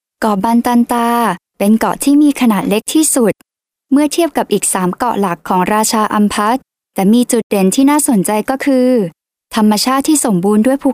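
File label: Thai female corporate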